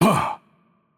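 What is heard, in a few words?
hurt light, Russian; bloom minigame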